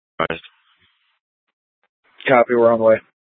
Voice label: Dispatch; Scottsdale

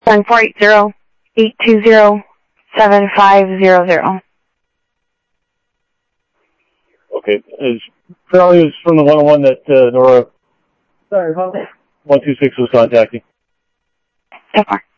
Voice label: Sheriff scanner audio

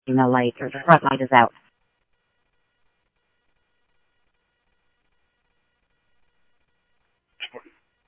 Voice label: Police, scanner audio trunk; H-Scottsdale

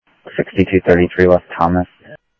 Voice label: Phoenix PD, scanner audio

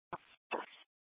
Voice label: PD Dispatch

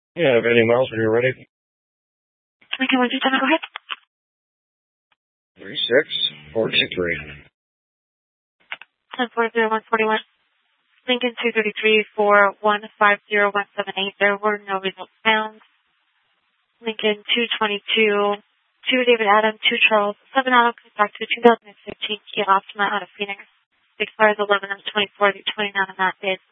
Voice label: Sheriffs Office, scanner

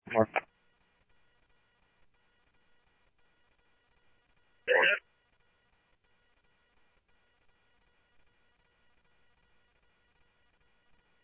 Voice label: PD Police, scanner audio; SCTSDLE PD - PD2 ScottsdalePolice; ThompsonPeakHigh

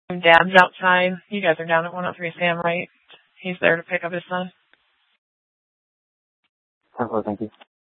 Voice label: Gilbert Police Dispatch; TOPAZ Regional Wireless Cooperative (TRWC) - Mesa Simulcast; 1 Dispatch

